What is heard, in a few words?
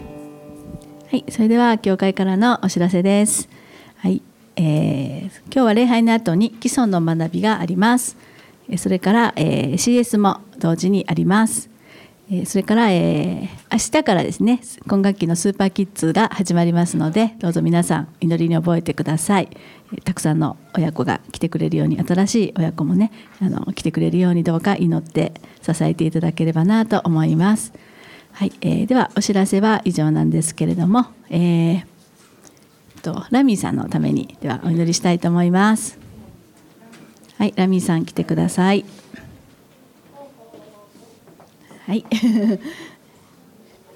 announcement and prayer for children; sunday message 2019